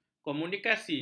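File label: "komunikasi", Malay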